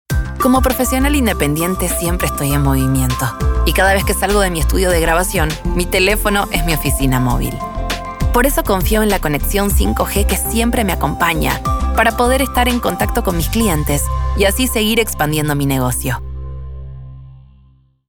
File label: Casual Natural